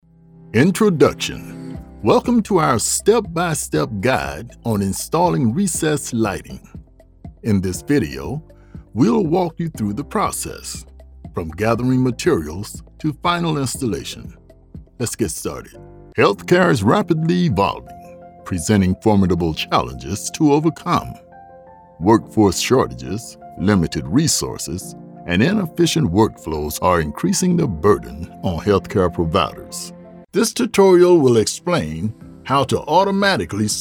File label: ELEARNING VARIOUS TOPICS; English - British and European, English - United Kingdom